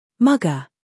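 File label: "humor", British; mugger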